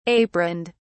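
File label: "aproned", British English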